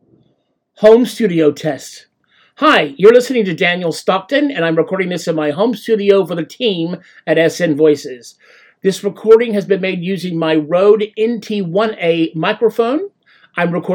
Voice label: Home Studio Test